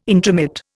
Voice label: "intromit", Indian

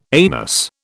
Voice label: "anus", British

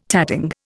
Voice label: "tatting", Indian

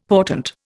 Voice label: "portent", Indian; Dictionary

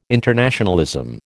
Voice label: "internationalism", American

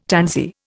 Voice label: "tansy", Indian

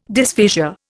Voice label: "dysphasia", Indian

